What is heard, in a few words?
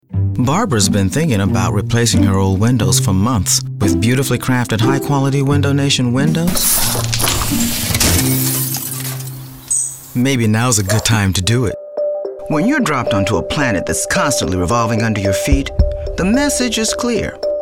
commercial